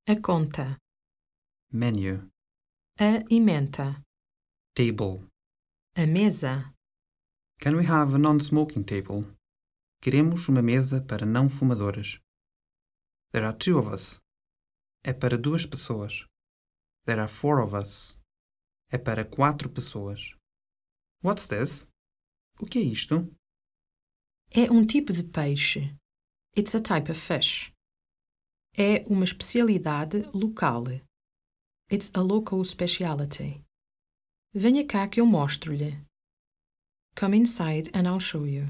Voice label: restaurants, Portuguese phrasebook; European Portuguese; The Rough Guide Portuguese phrasebook audio